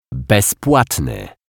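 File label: "bezplatny", Polish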